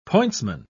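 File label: "pointsman", British English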